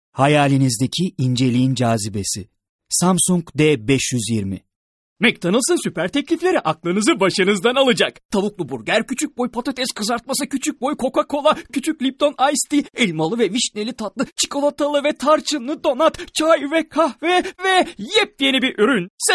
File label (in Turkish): erkek